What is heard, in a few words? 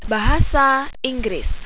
english